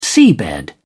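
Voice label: "seabed", English, British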